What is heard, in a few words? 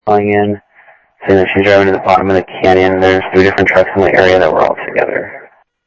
South Scottsdale Sheriff, scanner audio